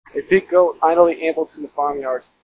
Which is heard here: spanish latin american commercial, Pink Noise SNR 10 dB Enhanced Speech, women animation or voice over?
Pink Noise SNR 10 dB Enhanced Speech